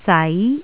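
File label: "zai2", Cantonese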